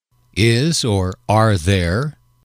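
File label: is are there, male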